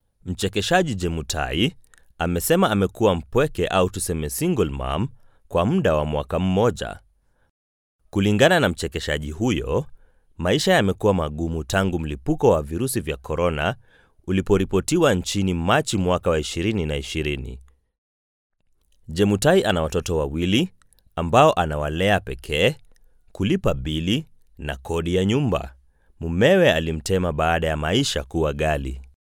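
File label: Swahili, male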